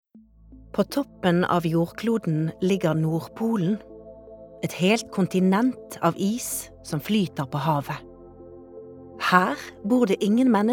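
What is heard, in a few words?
Norwegian documentary, norwegian female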